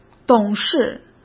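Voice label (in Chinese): "董事", Mandarin Chinese; hsk; chinese-flashcards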